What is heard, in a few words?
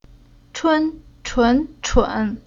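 "Chun", Chinese syllable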